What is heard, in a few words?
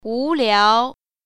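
"wu2liao2", Mandarin Chinese Tone Pair Drills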